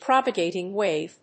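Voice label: "propagating wave", English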